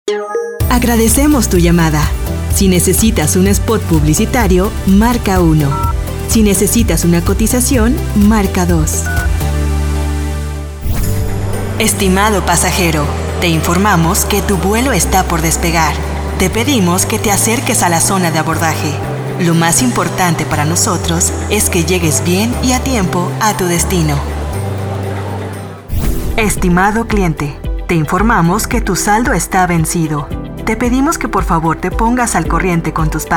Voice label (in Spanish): Audio mujer